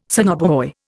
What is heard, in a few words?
"sonobuoy", Indian